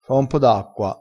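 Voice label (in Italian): "fa un po", vocabolario dialettale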